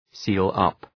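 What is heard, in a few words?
"seal-up" English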